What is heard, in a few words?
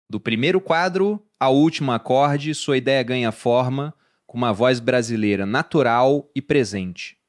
heitor pt br; inworld